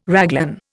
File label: "raglan", Indian